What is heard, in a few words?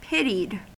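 "pitied", American English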